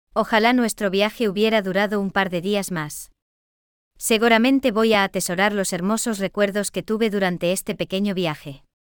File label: Spanish Audio; OpenAI Whisper Example